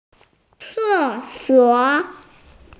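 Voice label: "toilet", hearing impaired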